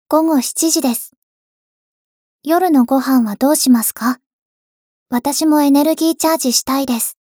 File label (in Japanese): 時報ボイス 電脳少女; ボイスコレクション 電脳少女編